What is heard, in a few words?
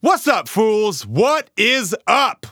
vo taunt whats up fools; Counter Strike; CS:GO; taunts